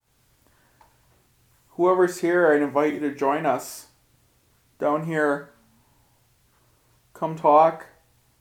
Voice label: faint voice, EVP